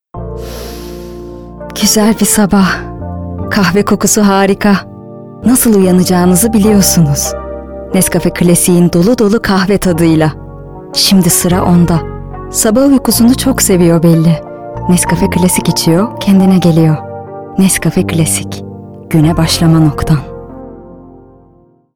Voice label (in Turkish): reklam; nescafe